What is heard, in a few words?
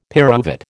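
"pyruvate", American English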